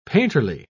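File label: "painterly", British English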